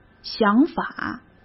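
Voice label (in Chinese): "想法", Mandarin Chinese; hsk; chinese-flashcards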